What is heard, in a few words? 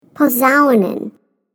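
TROMBONES, German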